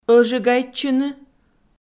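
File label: "ӧжигайтчыны", Komi, female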